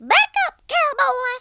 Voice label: "leave me alone", Cowgirl; speech

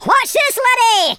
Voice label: watch this, Scotsman; speech

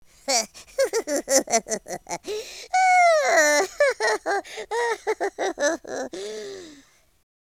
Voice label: happy

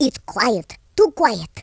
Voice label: incoming, Russki

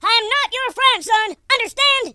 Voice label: just you wait, Drill Sergeant, speech

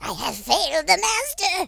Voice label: oh dear, speech, Horror

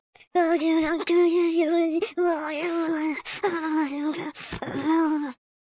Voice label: angry, Hippy

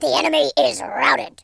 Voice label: "coward", speech

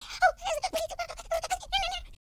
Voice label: scared

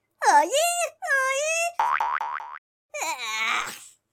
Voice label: taunt, speech

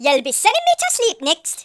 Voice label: boring, Geordie; speech

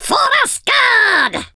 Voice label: dragon punch, speech, Viking

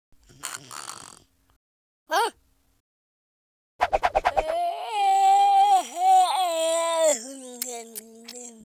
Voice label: idle, US Sports speech

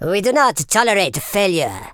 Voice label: "oh dear", Super Villain speech

